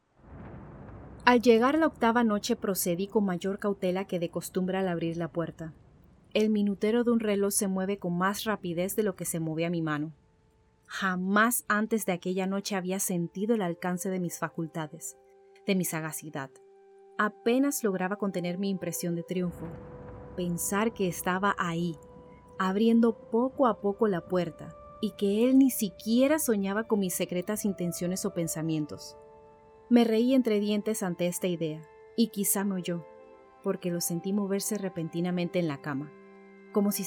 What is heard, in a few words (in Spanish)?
narra esp